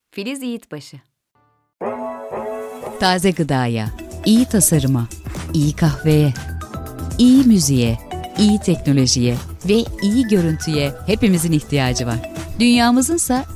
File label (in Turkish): reklam, turkce kadin